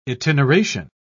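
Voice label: "itineration", British English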